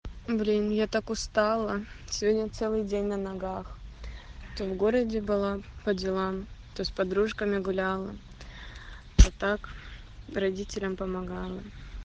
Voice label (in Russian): jenskie golosovye sms